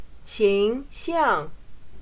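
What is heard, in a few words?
"形象", Chinese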